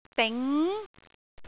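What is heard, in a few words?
"ding2", Cantonese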